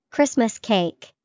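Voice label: Christmas cake, English vocabulary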